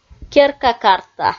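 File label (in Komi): "керка-карта", Komi, female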